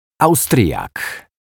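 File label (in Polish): "Austriak", Polish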